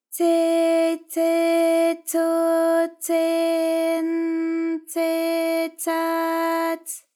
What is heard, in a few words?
tse tse tso tse n tse tsa ts, JPN; ALYS